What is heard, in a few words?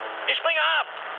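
German bailout mood low, speech; War Thunder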